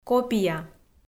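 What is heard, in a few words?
"копия", Komi, female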